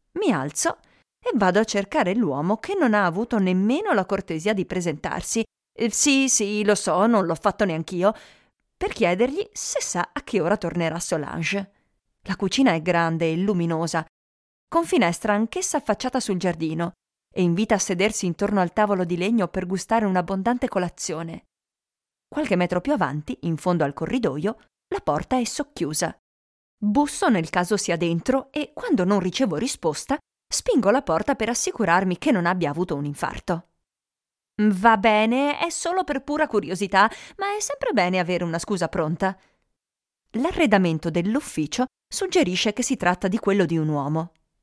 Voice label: Italian voiceover cheerful energetic

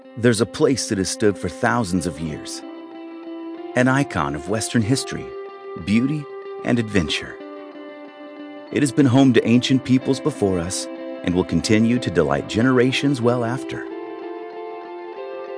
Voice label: English Voice Over

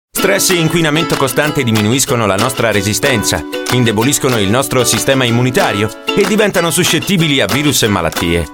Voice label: Energetic Fas

